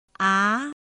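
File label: "á", Chinese; pinyin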